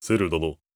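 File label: question short, voice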